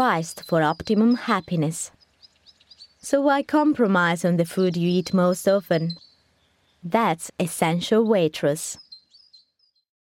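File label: IT AccEN, Italian accented English; GoLocalise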